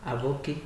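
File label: "aboki", Nigerian Pidgin, male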